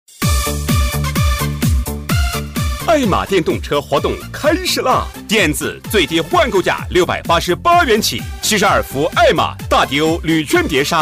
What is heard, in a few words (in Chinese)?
男 促销广告; 爱玛电动车; 成熟稳重 大气激昂; 男146-促销广告-【爱玛电动车】